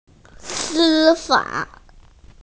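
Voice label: "judiciary", Mandarin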